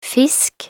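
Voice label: "fisk", Norwegian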